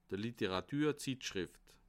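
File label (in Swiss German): "literaturzittschrift", Bas-Rhin; livre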